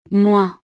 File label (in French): "moins", frances